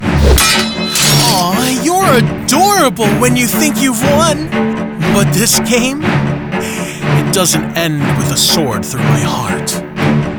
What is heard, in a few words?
Animation